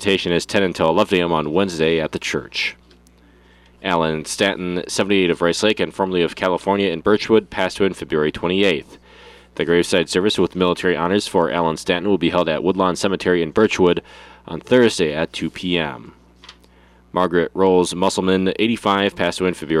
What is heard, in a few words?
Funeral Announcements